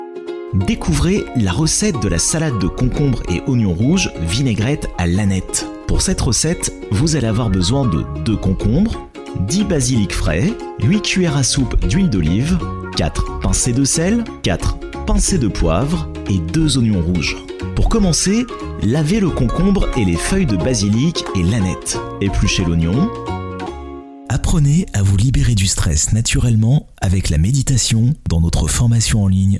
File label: French voice over